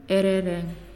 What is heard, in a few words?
"Red", Naasioi